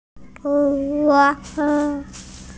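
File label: "socks", hearing impaired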